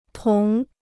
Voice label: "同", Chinese

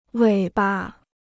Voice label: "尾巴", Chinese

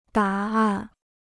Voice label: "答案", Chinese

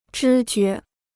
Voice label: "知觉", Chinese

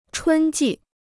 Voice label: "春季", Chinese; chūn jì; springtime

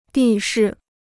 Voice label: "地势", Chinese

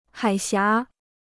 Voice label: "海峡", Chinese

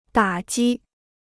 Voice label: "打击", Chinese

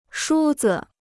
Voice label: "梳子", Chinese

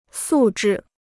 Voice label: "素质", Chinese

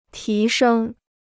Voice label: "提升", Chinese

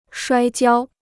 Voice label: "摔跤", Chinese

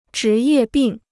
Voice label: "职业病", Chinese

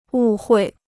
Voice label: "误会", Chinese

